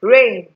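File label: "rain", Nigerian Pidgin, female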